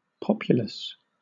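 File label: "populous", British English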